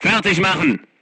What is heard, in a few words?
voice message load, crew tanks german